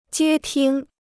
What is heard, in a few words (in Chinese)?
"接听", Chinese